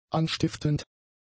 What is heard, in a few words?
"anstiftend", German; adjectives